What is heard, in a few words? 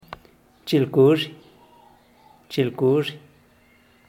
"chil kuuzhih", zapoteco teotitlan; chile guajillo